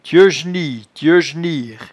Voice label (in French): "tieujenie", patois jura